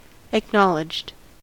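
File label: "acknowledged", US English; pronunciation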